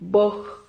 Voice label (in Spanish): "boj", Silabas